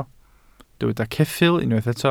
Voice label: "ceffyl", Welsh